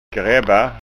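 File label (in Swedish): "grebba", Scanian Swedish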